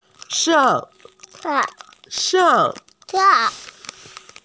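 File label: "shang4", Mandarin Chinese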